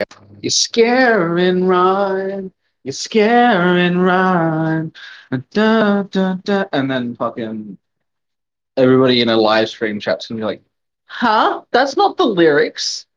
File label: voice message